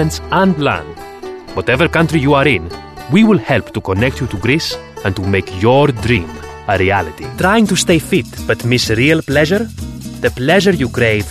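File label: Greek English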